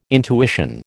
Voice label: "intuition", American